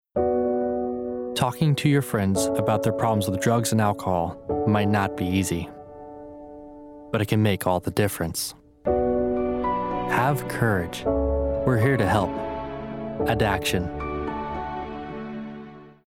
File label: Reassuring, sincere, intimate; Addaction